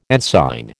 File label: "ensign", American